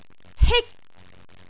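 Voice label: "hik1", Cantonese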